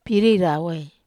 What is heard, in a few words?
"pirie rawai", Wamesa